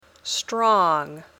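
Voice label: "strong", English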